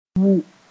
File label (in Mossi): "wũ", moore; dig deeper